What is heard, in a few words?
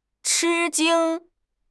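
"chi1 jing1", Mandarin Chinese; HSK4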